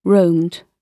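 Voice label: "roamed", British English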